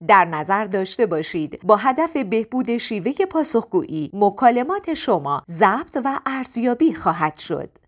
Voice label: voice women